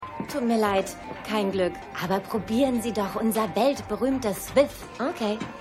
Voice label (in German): Oberkellnerin, Stimmproben; Wikinger; The Flight Attendant